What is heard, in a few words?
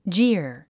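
"jeer", English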